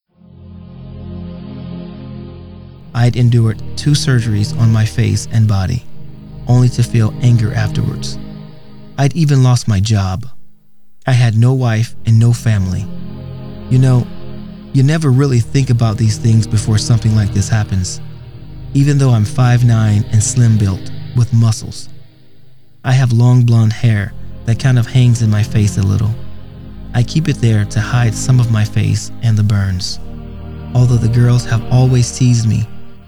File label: Audiobook Sample